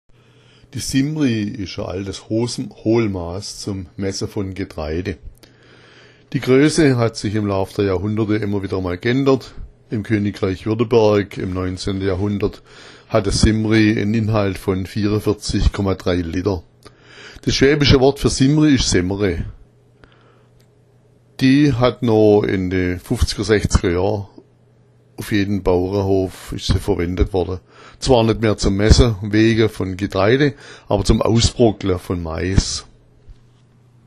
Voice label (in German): "semmere", schwaebisch; die Simri, ist ein altes Hohlmaß zum Messen von Getreide.